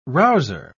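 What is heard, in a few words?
"rouser", British English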